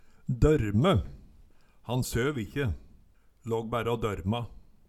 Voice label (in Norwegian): "dorme", Numedalsmål